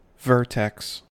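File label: "vertex", US English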